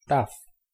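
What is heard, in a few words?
"tau", Greek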